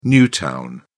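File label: "new town", British English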